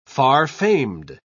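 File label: "far-famed", British English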